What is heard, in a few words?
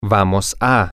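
we Are Going To, spanish, male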